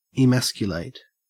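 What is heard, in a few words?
"emasculate", Australian English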